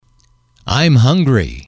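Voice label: "im hungry", English, male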